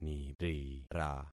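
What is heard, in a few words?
"nirira", concatenated syllables; Affixing experiment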